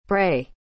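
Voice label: "pray", English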